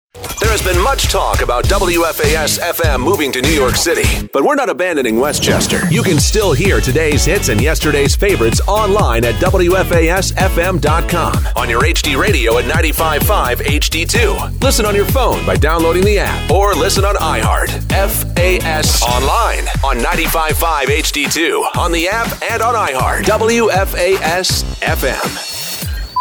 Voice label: promos